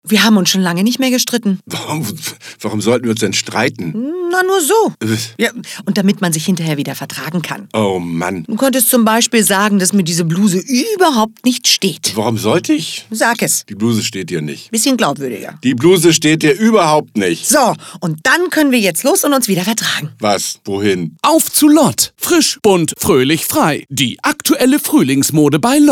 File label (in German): werbung; Vertragen